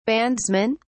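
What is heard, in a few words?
"bandsmen", British English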